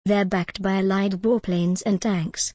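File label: British English, they're backed by allied warplanes and tanks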